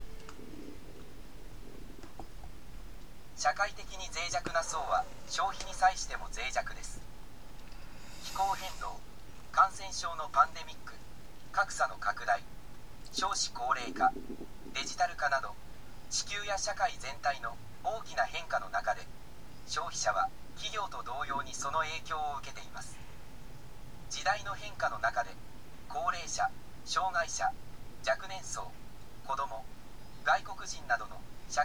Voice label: elearning; japan; business and human rights